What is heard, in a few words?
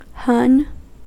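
"hon", US English; pronunciations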